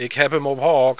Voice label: "hepp up hook", plattdeutsch

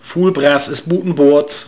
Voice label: fulbras is buten bords, plattdeutsch

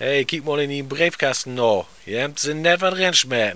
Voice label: in breefkasten, plattdeutsch

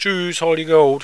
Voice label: "hol di god", plattdeutsch

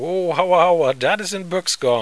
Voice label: buex gon, plattdeutsch

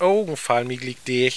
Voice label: ogen fallen dicht, plattdeutsch

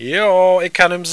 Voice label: kann hem sehn, plattdeutsch

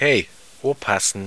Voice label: hey opassen, plattdeutsch

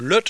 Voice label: "luett", plattdeutsch

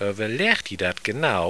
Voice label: oevverlech di dat, plattdeutsch

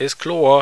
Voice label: is klor, plattdeutsch

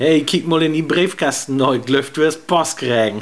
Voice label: hey post kregen, plattdeutsch

